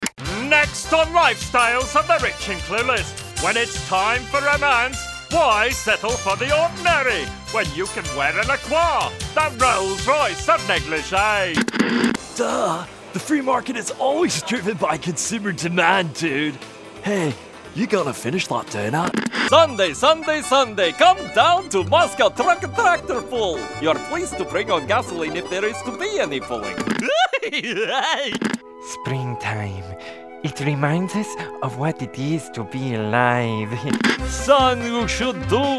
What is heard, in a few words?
char, voiceover